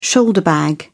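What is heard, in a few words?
"shoulder bag", British English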